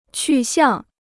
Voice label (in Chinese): "去向", Chinese